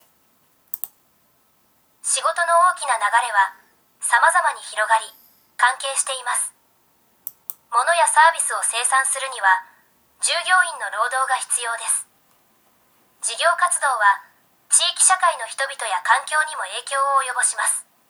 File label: elearning; japan; business and human rights